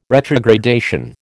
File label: "retrogradation", American